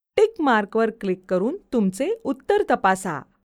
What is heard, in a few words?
Click Check VO, Marathi